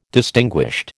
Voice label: "distinguished", American

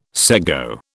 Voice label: "sego", British

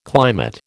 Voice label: "climate", American

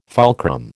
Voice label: "fulcrum", American

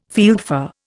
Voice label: "fieldfare", Indian

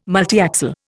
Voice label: "multiaxial", Indian

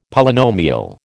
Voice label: "Polynomial", American

